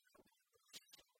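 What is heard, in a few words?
"лоны бур кыйсьысьӧн", Komi, female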